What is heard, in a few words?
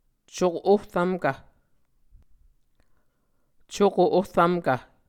"cuko ohrvmkv", Muscogee; Mvskoke Words with Voicing Consonants; A Dictionary of Creek/Muskogee; SIL International